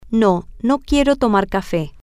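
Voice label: Spanish, female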